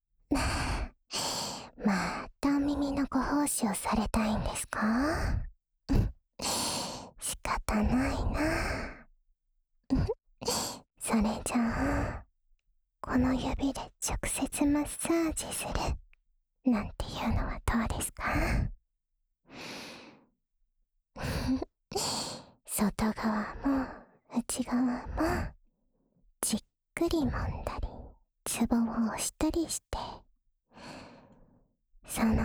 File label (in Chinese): 助眠用 耳部按摩（左耳）, ASMR